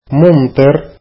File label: rainy, arabic